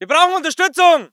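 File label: voice german infantry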